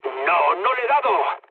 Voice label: bomb failure, mood low, speech; War Thunder